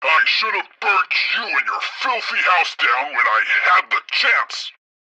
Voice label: tutorial chopper; midwave; Blind Drive